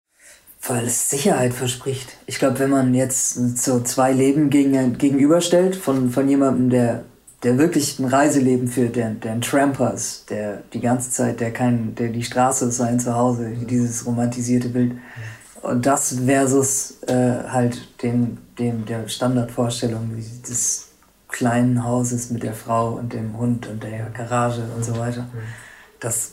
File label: punjab pakistan